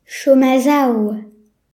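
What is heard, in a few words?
"stand", Breton; gcompris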